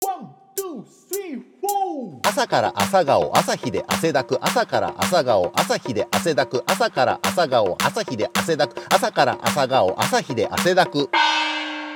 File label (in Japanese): 早口言葉リズム（中級編）